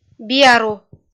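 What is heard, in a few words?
"биару", Komi-Zyrian, female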